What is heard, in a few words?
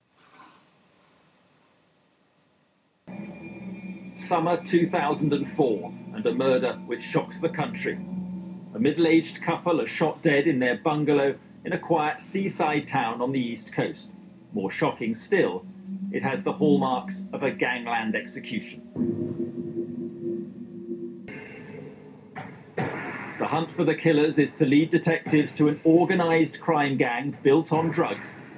A male TV newscaster, general british